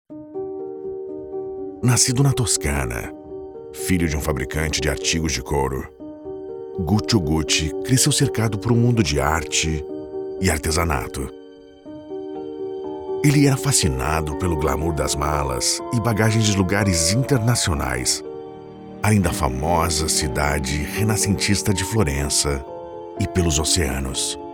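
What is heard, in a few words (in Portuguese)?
Brazilian Portuguese, Narration; voice demos; GoLocalise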